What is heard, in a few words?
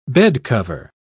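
"bedcover", British English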